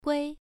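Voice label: "gui", Mandarin Chinese, first tone, pronunciation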